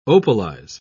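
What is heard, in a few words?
"opalize", British English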